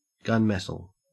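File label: "gunmetal" Australian English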